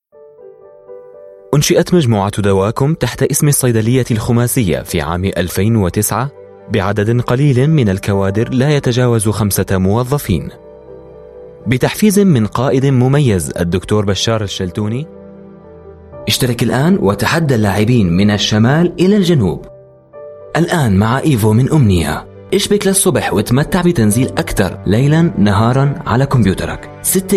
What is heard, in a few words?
Arabic Male